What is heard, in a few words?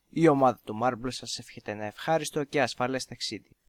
Greek, speakers; marble